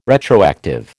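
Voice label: "retroactive", American English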